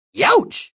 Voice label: Youch Male, Male pain, comical